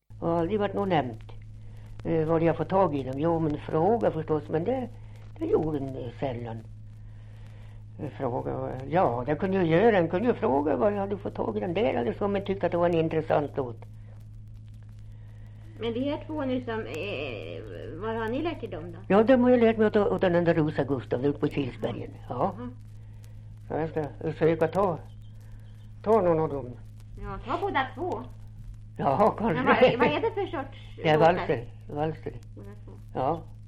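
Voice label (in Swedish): Kopparberg Västmanland, dialekter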